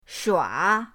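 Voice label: "shua", Mandarin pinyin, third tone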